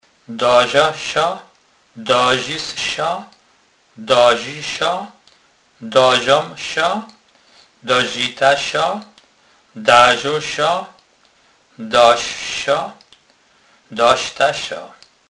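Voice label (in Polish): "dazec", Kurpie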